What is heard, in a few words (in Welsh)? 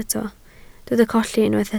"colli", Welsh